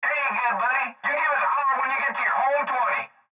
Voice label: Cb Trucker Radio; Cb Radio Male Hey Good Buddy You Give Us; Radio Voice; Blastwave